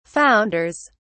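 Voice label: "founders", English, British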